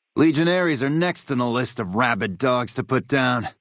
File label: male adult greeting; Fnv